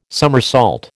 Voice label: "summersault", American

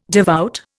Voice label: "devout", Indian

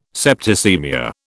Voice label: "septicaemia", British

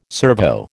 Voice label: "servo", American

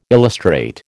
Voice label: "illustrate", American

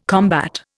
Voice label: "combat", Indian

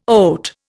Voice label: "oat", Indian

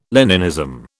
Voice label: "Leninism", British

